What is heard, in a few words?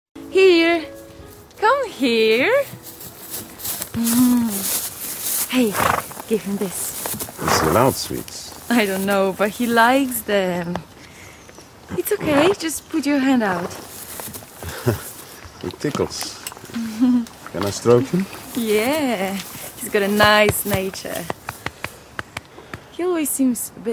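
Polish, Accented English; GoLocalise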